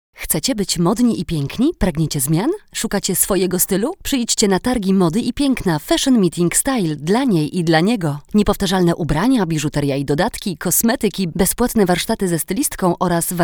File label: polish female